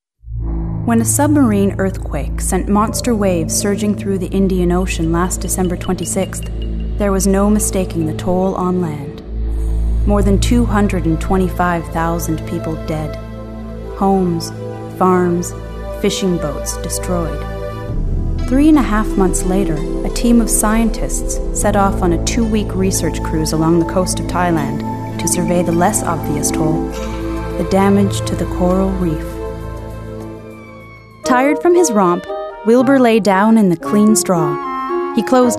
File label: Narration